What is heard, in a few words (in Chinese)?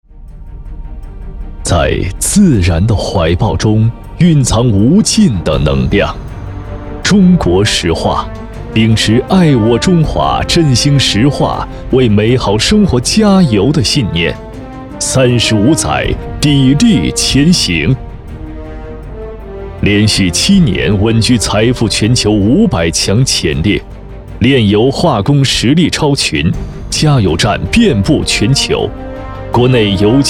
男 专题 大气饱满; 中国石化